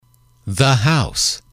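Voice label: "the house", English, male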